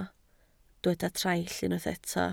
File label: "traill", Welsh